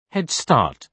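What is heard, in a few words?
"head start", British English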